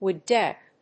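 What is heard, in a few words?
"WOOD DECK", English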